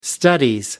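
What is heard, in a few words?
"studies", English, uk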